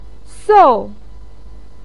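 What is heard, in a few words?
"sou4", Mandarin Chinese, pinyin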